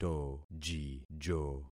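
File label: "tojijo", concatenated syllables; Affixing experiment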